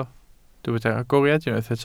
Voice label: "agoriad", Welsh